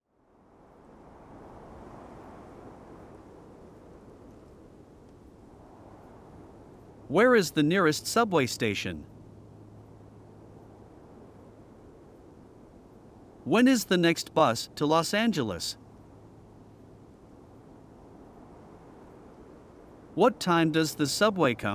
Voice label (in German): Den Nahverkehr nutzen Relax Wind Saetze